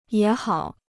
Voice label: "也好", Chinese